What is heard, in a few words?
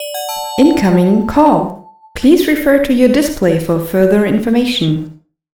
female ringtone